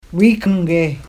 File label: "wiiǩnge" pronunciation; Aadsookaan related words